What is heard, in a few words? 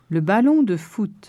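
"ballon de foot", French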